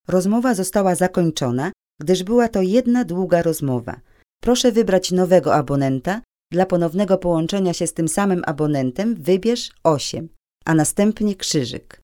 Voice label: Polish; קריינות